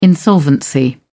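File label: "insolvency", British English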